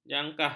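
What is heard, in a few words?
"jangkah", Malay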